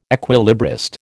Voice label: "equilibrist", American

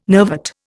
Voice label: "nervate", Indian

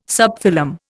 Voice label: "subphylum", Indian

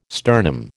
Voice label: "sternum", American

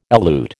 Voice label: "elute", American

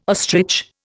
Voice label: "ostrich", Indian

